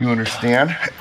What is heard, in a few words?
"you understand", male